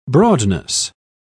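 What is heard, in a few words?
"broadness", British English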